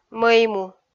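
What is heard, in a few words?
"мӧйму", Komi-Zyrian, female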